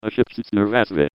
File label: taunt head pain; pyro